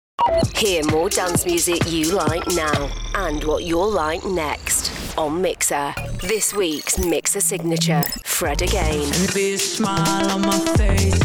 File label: female english sheffield accent imaging compilation; english northern accents